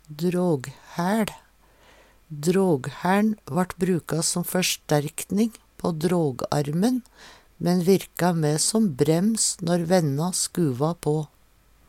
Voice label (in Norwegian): "draghaeL", Numedalsmål